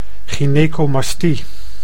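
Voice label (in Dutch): "gynaecomastie", Dutch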